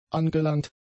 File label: "angelangt", German; adjectives